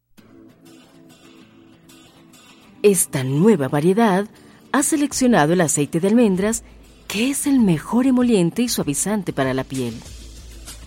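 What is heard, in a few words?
Latin American Spanish, General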